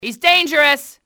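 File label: dangerous, enemy english female; XBOX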